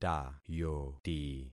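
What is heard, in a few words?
"tayoti", concatenated syllables; Affixing experiment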